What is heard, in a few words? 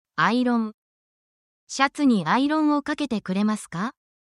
japanese home appliance vocab